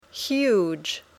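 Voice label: "huge", English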